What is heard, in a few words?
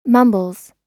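"mumbles", American English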